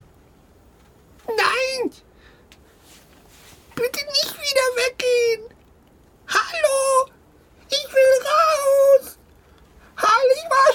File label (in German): Hoerspiel